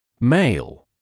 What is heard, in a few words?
male; glossary